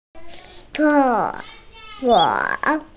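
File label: "cè suǒ", Mandarin Chinese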